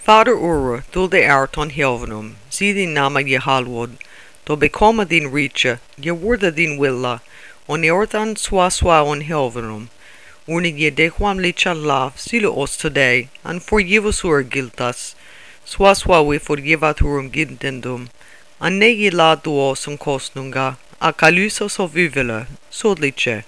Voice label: Lords Prayer in Old English; The Bible's Fight to Live